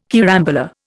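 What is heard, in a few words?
"carambola", Indian English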